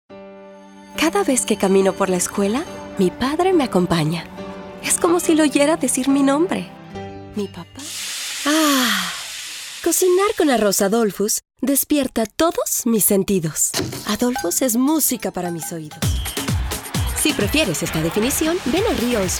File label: spanish females